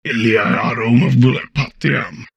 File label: humanoid portrait male; Stellaris; humanoids